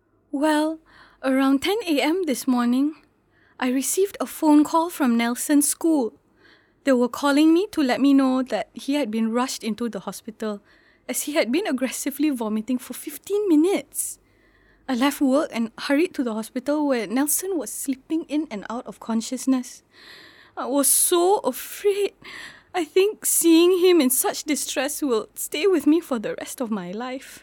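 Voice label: EN SG accent, Singapore English accent; Green Shoot Productions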